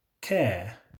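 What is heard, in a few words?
"care", English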